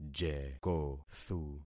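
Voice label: "jekothu", concatenated syllables; Affixing experiment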